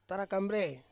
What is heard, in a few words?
"tarakambrei" ears, Ansus